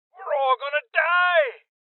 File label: Voices Heavy Losses Male Old; rebel cops 2019